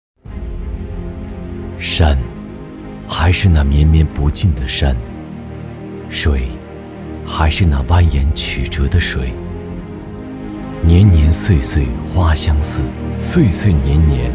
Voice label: 低沉 大气 专题

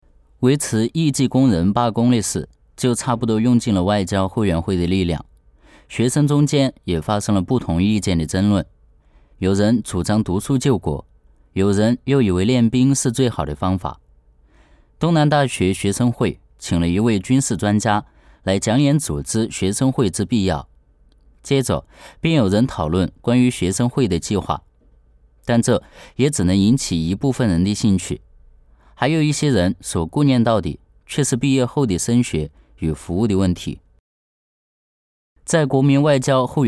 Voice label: 画外音; 巴金死去的太阳

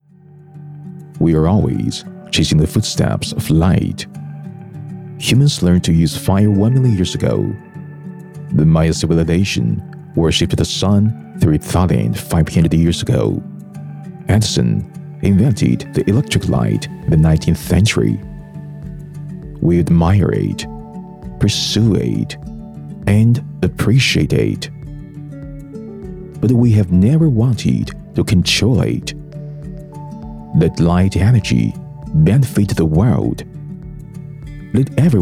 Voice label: 广告 知性 轻松 英文; 太阳能概念广告项目

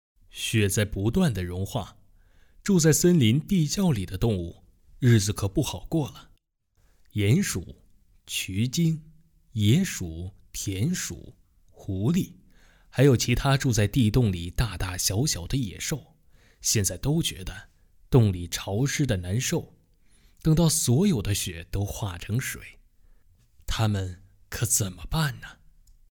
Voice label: 故事类

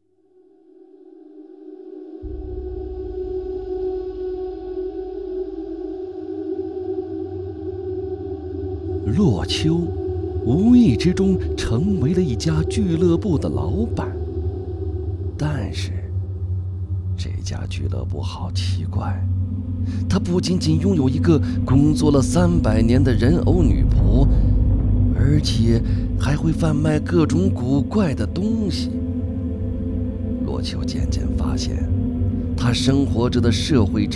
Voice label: 预告; 特拉福买家俱乐部预告

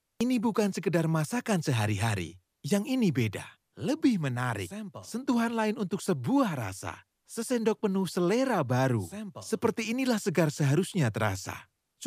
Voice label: 印尼语 男声

